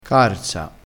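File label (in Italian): "carza", dialettale crespinese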